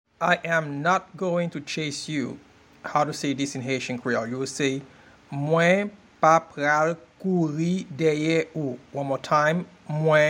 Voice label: I am not going to chase you in Haitian Creole Mwen pa pral kouri deye ou pronunciation; “I am not going to chase you” is translated as “Mwen pa pral kouri dèyè ou” in Haitian Cre